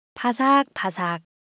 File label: Korean, Ideo Phones; Texture